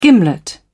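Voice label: "gimlet", British English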